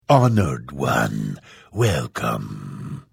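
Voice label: Hello, Vo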